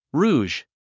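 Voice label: "rouge", English word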